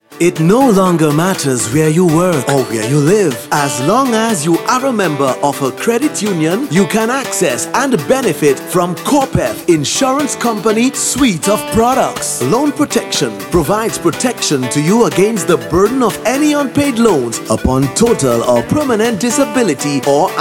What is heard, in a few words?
Advert